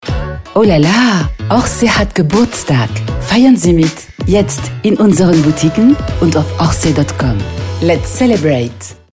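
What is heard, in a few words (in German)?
Sprecherin französisch; french voice-over talent female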